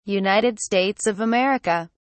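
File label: "United States of America", British English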